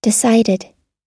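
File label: "decided", US English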